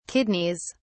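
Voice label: "kidneys", British English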